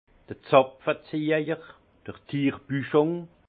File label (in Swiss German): "zapfe ziehjer", alsace; vin; tire bouchon